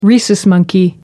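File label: "rhesus monkey", British English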